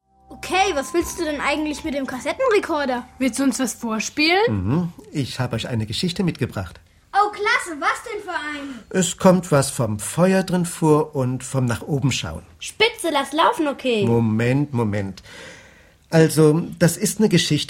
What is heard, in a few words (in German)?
Biblisches Hoerspiel; Die feurigen Schlangen